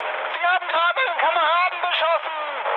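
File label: German player killed ally mood high, speech; War Thunder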